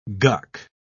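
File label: "guck", British English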